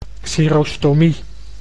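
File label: "xerostomie", Dutch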